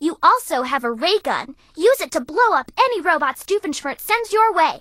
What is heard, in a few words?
English Voice